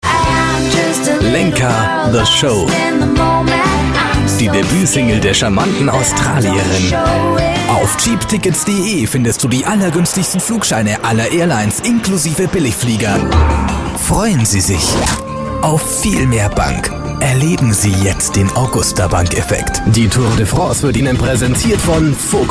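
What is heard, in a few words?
German, male, commercial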